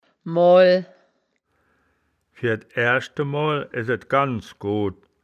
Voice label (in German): "moal", Wittlicher; das wittlicher woerterbuch; woerterbuch vertonung